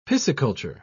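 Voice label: "pisciculture", British English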